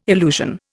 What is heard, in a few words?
"illusion", Indian